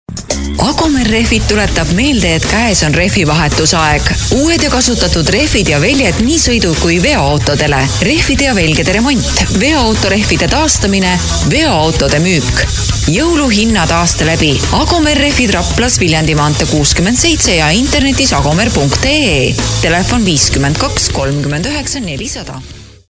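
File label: estonian female commercial; voice demos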